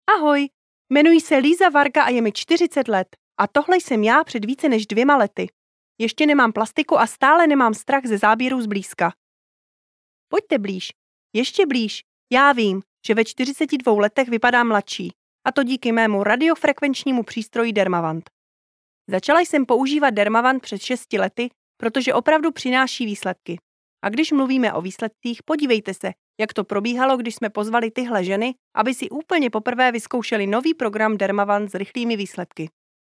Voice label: Cekce Kadin, Kadın Ses

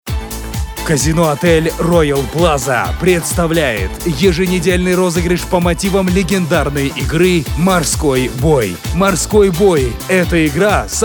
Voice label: Kazakca Erkek, seslendirme

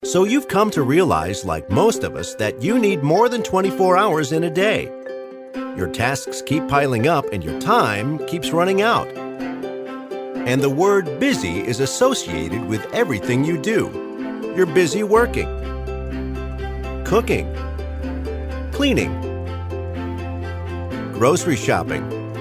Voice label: Amerikan Ingilizcesi Erkek, Erkek Ses

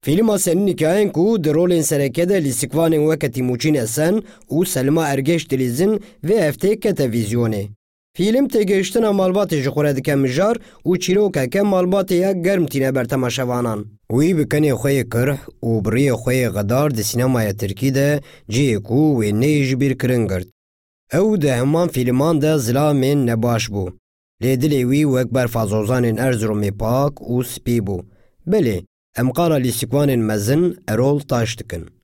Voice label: Kurtce Erkek Kurmanci; kurtce seslendirme; erkek sesler

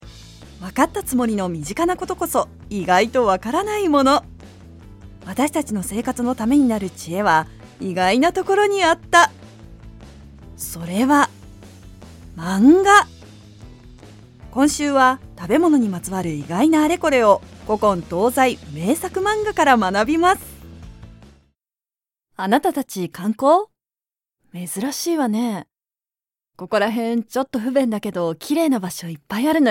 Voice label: Japonca Kadin, seslendirme

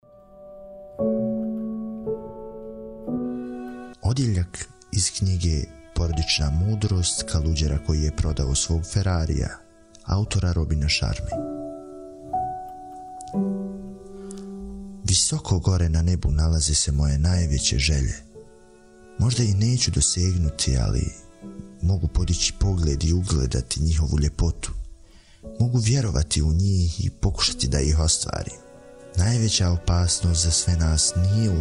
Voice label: Bosnakca Erkek; seslendirme

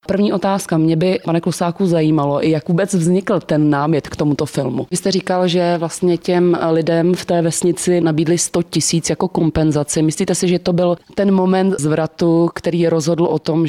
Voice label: Cekce Kadin, seslendirme; Kadın Ses

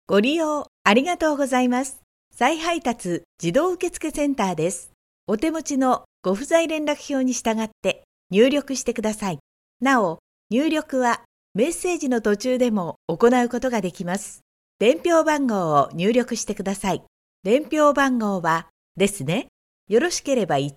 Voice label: Japonca Kadin, seslendirme

